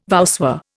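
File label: "voussoir", Indian English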